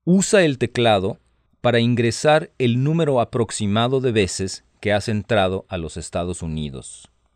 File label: Spanish, hotline